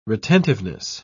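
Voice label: "retentiveness", English, British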